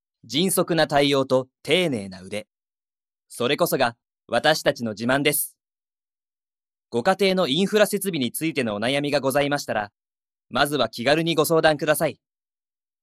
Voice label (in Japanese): ナレ